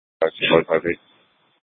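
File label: Police Department Dispatch, scanner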